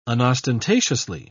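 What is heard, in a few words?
"unostentatiously", British English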